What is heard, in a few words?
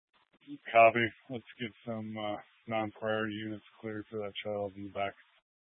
Police Dispatch; Police 1 Dispatch